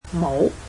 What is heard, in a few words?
"hat", cantonese